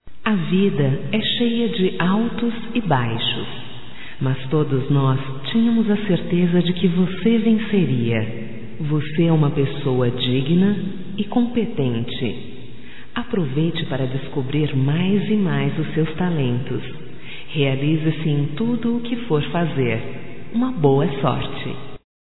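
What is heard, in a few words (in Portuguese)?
voz, Para Quem Conseguiu Emprego, female, Telemensagens; Telemensagens 2017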